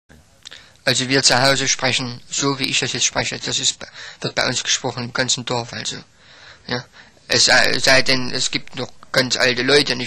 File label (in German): Thueringisch Westthueringisch; Mitteldeutsch